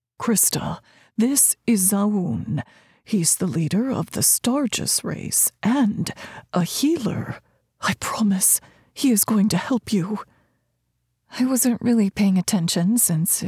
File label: Fantasy, Multiple characters, 1st person; Magic will heal all; Core Magic Heals All